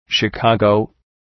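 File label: "Chicago", English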